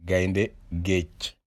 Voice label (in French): wolof Requin